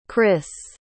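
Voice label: "criss", English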